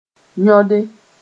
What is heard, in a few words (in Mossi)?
"yõde", moore